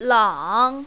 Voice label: "lang3", mandarin, female

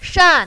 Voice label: "shan4", mandarin, female

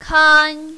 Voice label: "kan1", mandarin, female

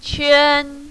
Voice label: "quan1", mandarin, female

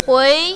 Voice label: "hui2", mandarin, female

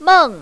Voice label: "meng4", mandarin, female

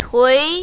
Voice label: "tui2", mandarin, female

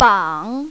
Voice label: "bang3", mandarin, female

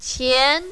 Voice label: "qian2", mandarin, female

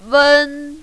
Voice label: "wen1", mandarin, female

